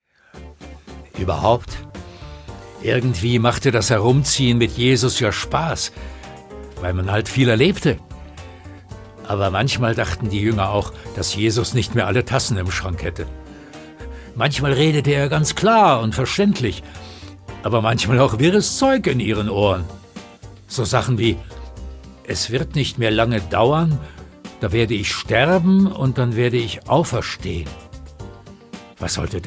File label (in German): Erzaehler